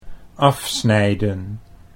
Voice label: "afsnijden", Dutch